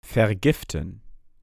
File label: "vergiften" German